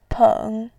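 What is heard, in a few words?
"peng", Mandarin, tone 3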